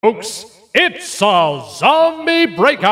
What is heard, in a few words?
announcer infection round start; open fortress